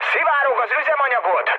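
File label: Hungarian tank damaged mood low, speech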